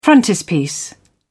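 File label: "frontispiece", British English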